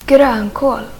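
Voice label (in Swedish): "grönkål", Swedish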